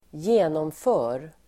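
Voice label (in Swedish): "genomför", Swedish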